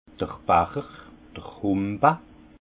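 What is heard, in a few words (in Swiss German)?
"bacher", Haut Rhin; vin; gobelet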